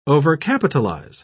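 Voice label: "overcapitalize", British English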